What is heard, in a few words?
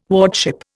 "wardship", Indian